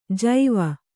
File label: "ಜೈವ", Kannada